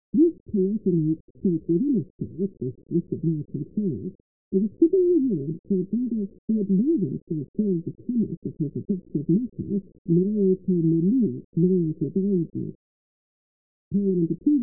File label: talk mono 8bit; 15secs